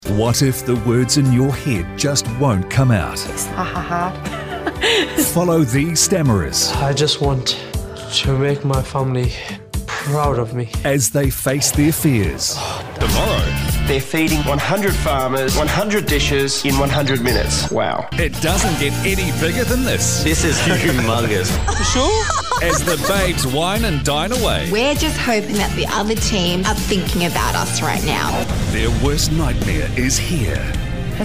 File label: TV Promo